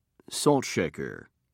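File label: "saltshaker", British English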